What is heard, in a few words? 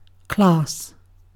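"class", British English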